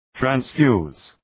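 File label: "transfuse", English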